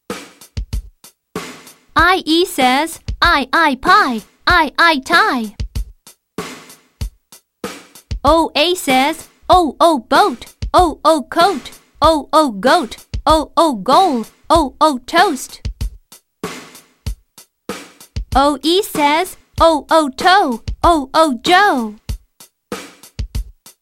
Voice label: Polite Vowels Listen And Say; Unit 2